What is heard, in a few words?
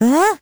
vo confused; Crypt of the NecroDancer